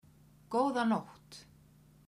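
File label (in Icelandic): "góða nótt", kvedjur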